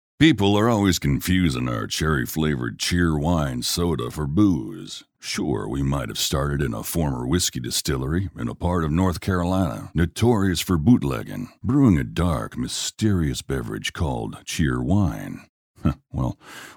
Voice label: Deep and Raspy